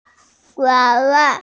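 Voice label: "wá wa", Mandarin Chinese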